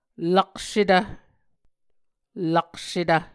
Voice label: "laksetv", Muscogee